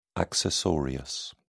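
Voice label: "accessorius", British English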